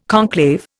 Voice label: "conclave", Indian